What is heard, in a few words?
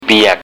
"piak wet", Thai